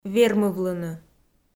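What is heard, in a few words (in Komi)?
"вермывлыны", Komi, female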